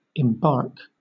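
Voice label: "embark", British English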